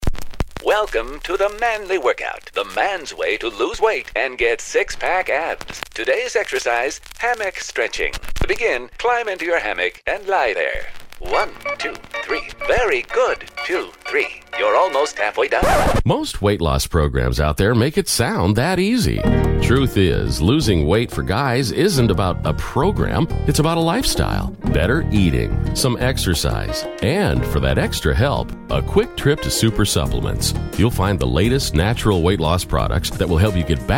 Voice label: Older Male